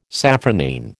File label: "safranine", American